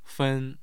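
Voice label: "fen", Mandarin Chinese, tone 1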